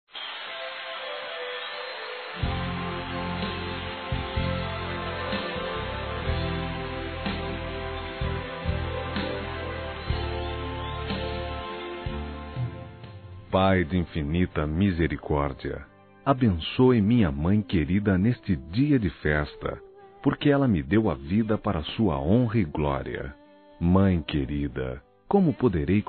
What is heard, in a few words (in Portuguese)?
Mãe Masculino, Telemensagens; ANIV. Evangelico